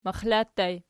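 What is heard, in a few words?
"maxla" ergative plural, Archi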